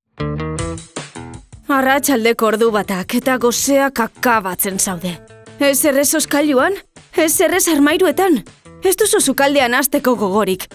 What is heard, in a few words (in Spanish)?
Publi Euskera